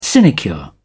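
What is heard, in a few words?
"sinecure", British English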